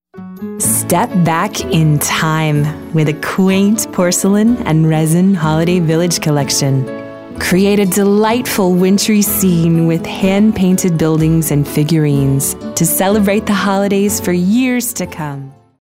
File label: Perky